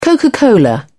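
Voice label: "coca cola", British English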